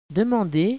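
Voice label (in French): "demander", French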